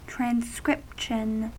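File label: "transcription", US English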